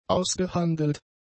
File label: "ausgehandelt", German; adjectives